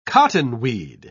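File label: "cottonweed", British English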